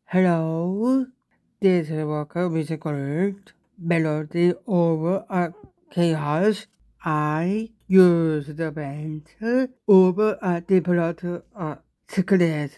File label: Voice English; Sync Shorts exhibition spring 2026